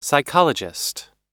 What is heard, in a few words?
"psychologist", us